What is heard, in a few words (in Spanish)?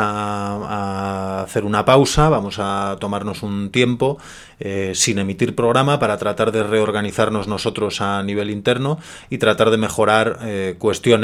Contraboletin informativo